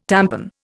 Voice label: "tampon", Indian